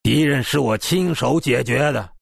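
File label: kill, chinese; dota2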